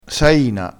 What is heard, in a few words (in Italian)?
"saina", crespinese